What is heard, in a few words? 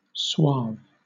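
"suave", British English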